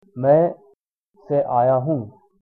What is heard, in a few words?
im from, hindi